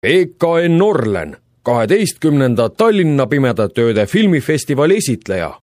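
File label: estonian male narration; voice demos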